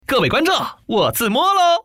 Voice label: zimo, putonghua, man; mahjong paohuzi

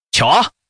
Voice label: chi, changsha man; mahjong paohuzi

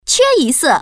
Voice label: queyise, putonghua woman; mahjong paohuzi

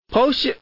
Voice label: "pao", man; mahjong paohuzi; youxian

